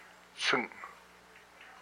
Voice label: "star", hupa